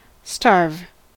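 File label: "starve", American English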